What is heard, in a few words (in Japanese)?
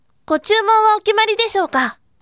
voice "gotyumon okimari"